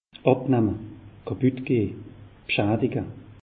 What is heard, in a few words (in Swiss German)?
"kaputt", alsace; meteo